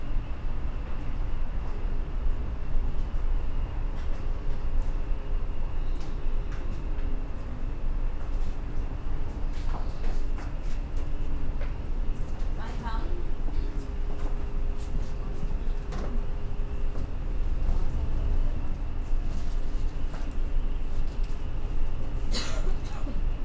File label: Newspaper Spanish